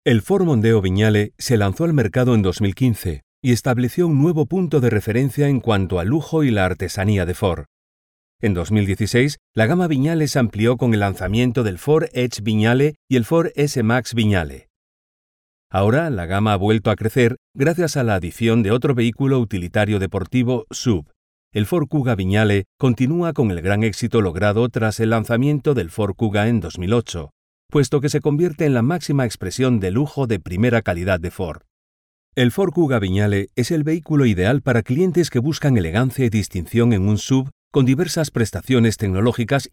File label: corporate marketing